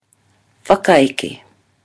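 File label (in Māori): "whakaeke", Māori word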